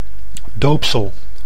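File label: "doopsel", Dutch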